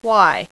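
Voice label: "Why", female; VOICE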